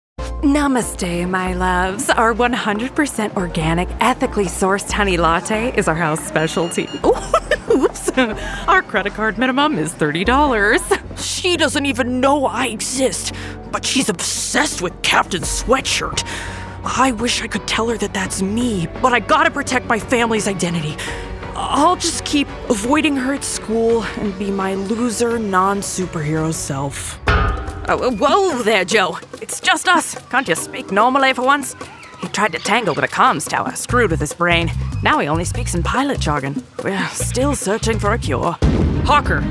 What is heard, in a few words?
Character VO